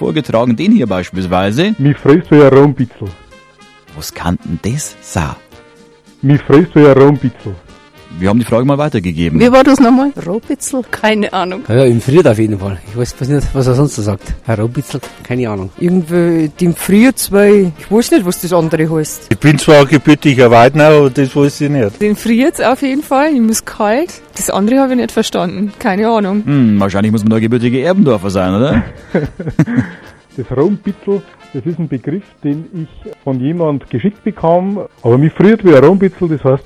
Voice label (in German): Mundart